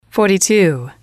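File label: forty Two, english, female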